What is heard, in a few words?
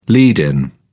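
"lead-in", English, British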